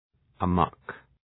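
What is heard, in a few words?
"amok", English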